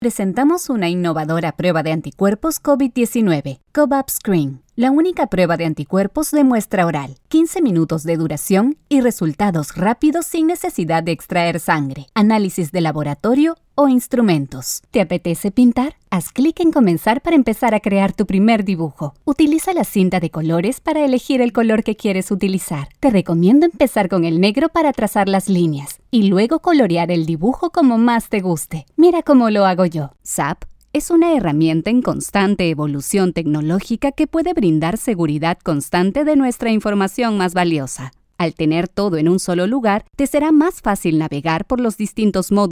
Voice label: E-Learnings